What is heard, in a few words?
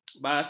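"basah", Malay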